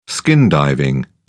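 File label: "skin diving", British English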